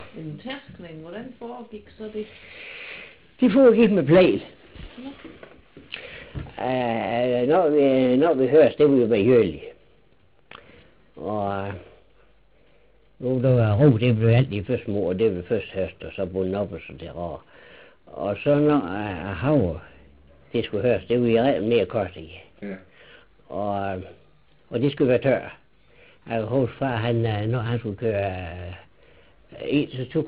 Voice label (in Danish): Dialekt fra Nees sogn syd for Lemvig